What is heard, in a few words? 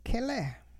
greeting used for a man, hupa